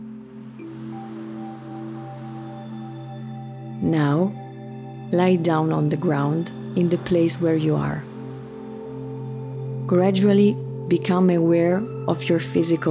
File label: Inner Journey English only